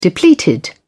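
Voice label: "depleted", English, British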